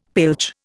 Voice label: "pilch", Indian; Dictionary